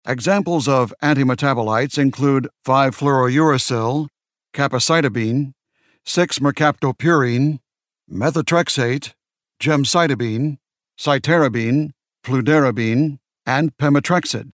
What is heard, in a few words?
us elearning